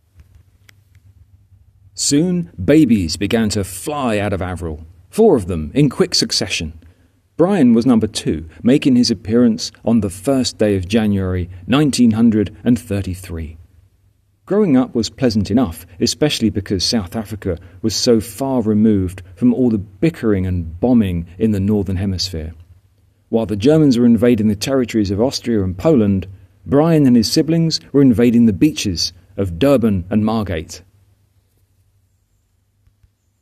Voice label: voiceover